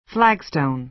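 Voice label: "flagstone", American English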